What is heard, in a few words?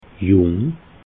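"jung", Alsatian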